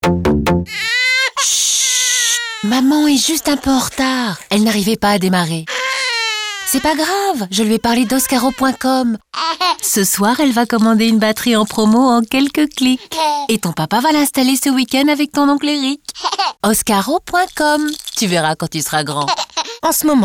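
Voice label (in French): signature decidee, comédienne voix off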